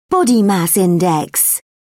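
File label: "body mass index", British English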